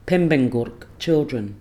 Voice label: "pembengguk" children